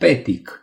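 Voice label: "patch", Romanian; gcompris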